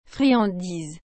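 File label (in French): "friandise", French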